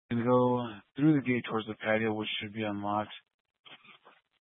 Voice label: Fire Department Dispatch, scanner

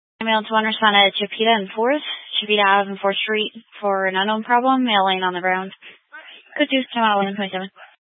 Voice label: Grand Junction Fire Department Dispatch

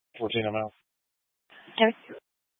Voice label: Police Dispatch, scanner; Police 1 Dispatch

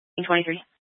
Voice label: Fire Department Dispatch; Grand Junction

